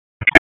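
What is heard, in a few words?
FIRE Operations, p25; FIRE OPS 1; wake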